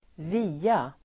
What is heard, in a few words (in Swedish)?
"via", Swedish